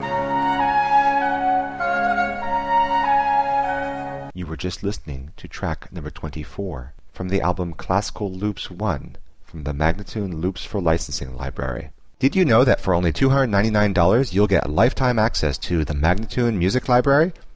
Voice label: american baroque spoken